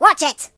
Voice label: "Watch it", British; hedgewars

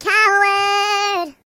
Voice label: "Coward", Singer; hedgewars

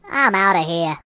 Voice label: "Byebye", Mobster; hedgewars

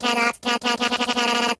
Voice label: "Bye bye", Robot voices; hedgewars

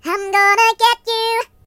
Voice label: Gonna get you, Singer; hedgewars

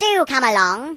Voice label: "Come on then", British

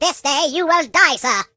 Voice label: Just you wait, British; hedgewars

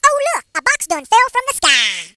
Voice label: Reinforcements, Hill Billy; hedgewars

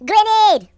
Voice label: "Grenade", Russian

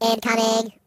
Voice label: Incoming, Robot voices; hedgewars

